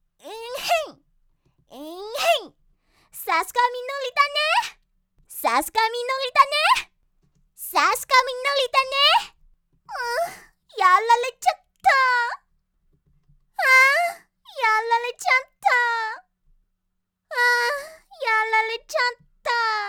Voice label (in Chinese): 女 日语游戏